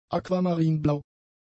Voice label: "aquamarinblau", German; adjectives